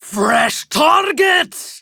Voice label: Enemy Spotted Female